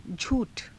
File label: "jhuu_tha", Hindi